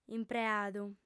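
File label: "impreadu", female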